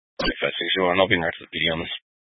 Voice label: Police Dispatch, scanner; Police 1 Dispatch